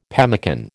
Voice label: "pemmican", American

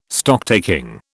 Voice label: "Stocktaking", British

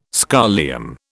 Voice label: "scholium", British

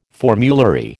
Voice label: "formulary", American

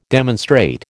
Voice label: "demonstrate", American

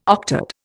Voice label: "octad", Indian

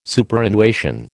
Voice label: "Superannuation", American

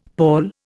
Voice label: "pawl", Indian; Dictionary

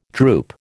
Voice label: "droop", American

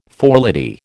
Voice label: "forelady", American

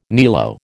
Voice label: "niello", American

